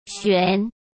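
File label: "玄", Mandarin Chinese; chinese flashcards; hsk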